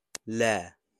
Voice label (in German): Arabisch nein